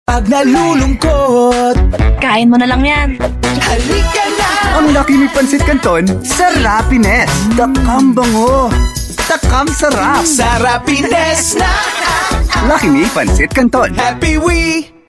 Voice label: Tagalog; Lucky Me Sarapiness